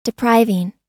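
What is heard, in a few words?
"depriving", US English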